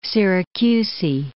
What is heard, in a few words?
"Syracusae", English